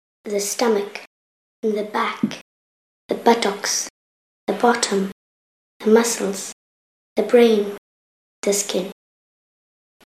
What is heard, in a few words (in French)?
anglais lecon body